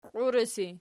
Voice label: "qiuresi", Archi